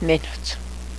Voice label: "berries", cheyenne

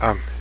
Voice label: "pemmican", cheyenne

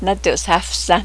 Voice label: I'm gonna get dressed real nice, Cheyenne

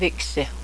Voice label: birds, Cheyenne; vé'kêseho

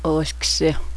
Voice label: dogs, cheyenne language

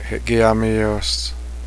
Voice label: slowdown, cheyenne language

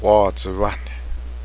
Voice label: deer plural, cheyenne language

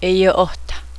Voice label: constipated, Cheyenne

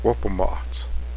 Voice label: "salt", cheyenne

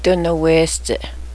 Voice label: winter coat, cheyenne

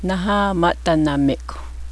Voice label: i have a headache, Cheyenne

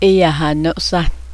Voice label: bite, cheyenne language

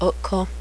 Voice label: coyote, cheyenne language

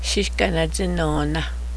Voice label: bat, cheyenne language

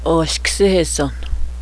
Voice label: puppies, cheyenne language

